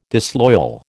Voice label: "disloyal", American